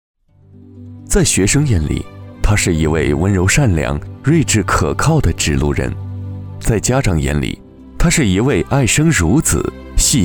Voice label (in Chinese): 男 人物传记